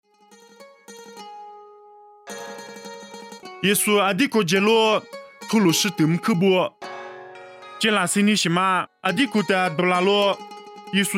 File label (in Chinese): 凉山彝语; 以色列西顿